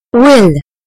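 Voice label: "will", British English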